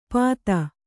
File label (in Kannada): "ಪಾತ", Kannada